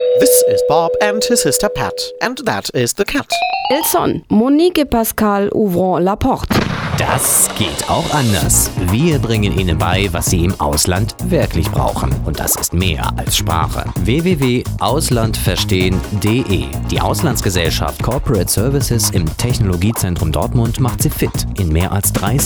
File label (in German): Werbespot, Radiowerbung; Komplettproduktion